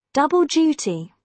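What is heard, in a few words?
"double duty", British English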